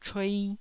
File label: "chuei1", Taiwanese